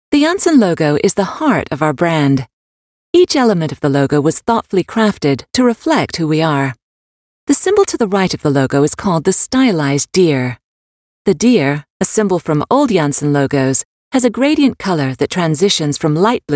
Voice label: UK English Corporate Narration

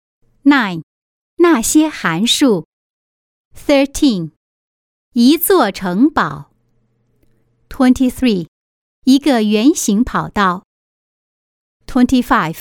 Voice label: Mandarin eLearning Dictionary, China Female

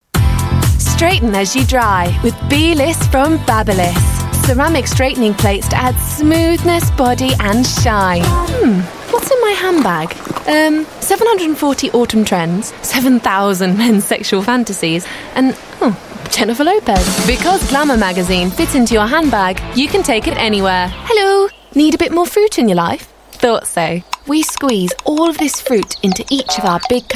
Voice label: UK English; Voice Reel

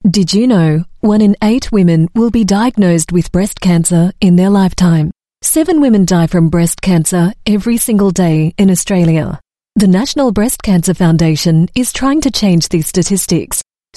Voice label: AU MidAtlantic English, Australian English Female; National Breast Cancer Foundation

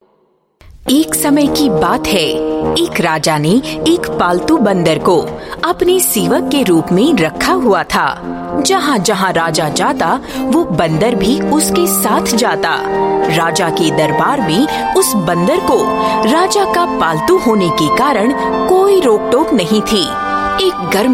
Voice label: Hindi Female

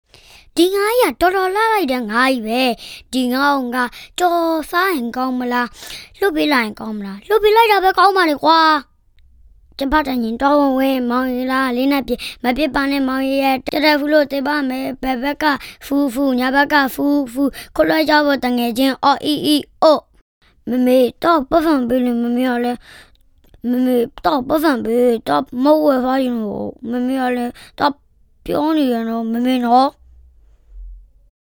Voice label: Burmese Voice Sample Male Child

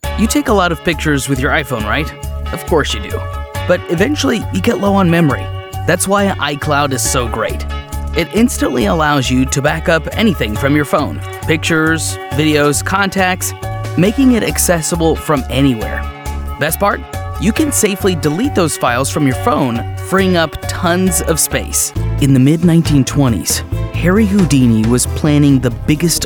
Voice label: US English Narration

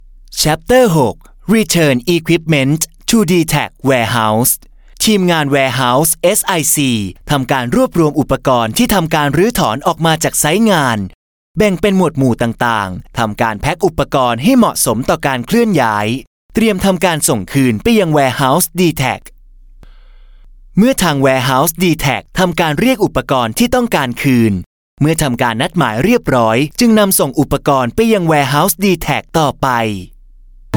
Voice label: Thai Male Narration Informative

